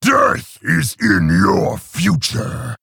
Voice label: Male Orc Start